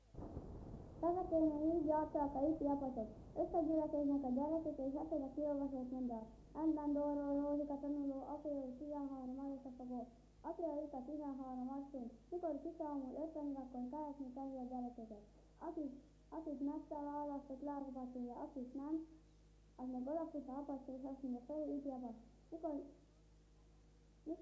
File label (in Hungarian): nyelvjaras gyujtesek